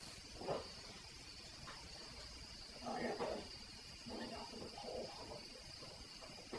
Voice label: unknown whispering